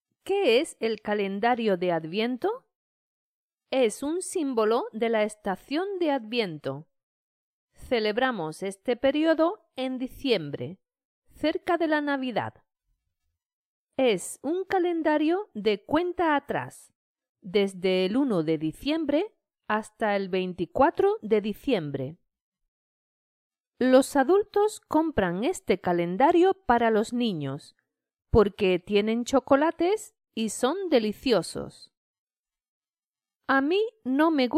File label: Spanish reader; Calendario de adviento